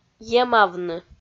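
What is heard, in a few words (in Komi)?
"емавны", Komi, female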